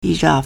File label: "yi1 zhao4", Chinese